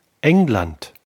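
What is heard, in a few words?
"england", German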